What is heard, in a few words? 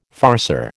"farceur", American